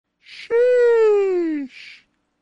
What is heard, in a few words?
sheesh sound effect notification